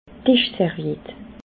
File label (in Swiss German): "dischserviett", alsace